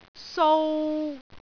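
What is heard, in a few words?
"sou3", Cantonese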